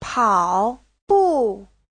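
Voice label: "pǎobù", Chinese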